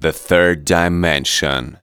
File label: "THIRD DIMENSION", SPOKEN; Techno Trance Essentials